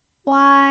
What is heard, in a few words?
"wai1", pinyin